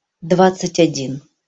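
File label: "dvadcat odin", Russian pronunciation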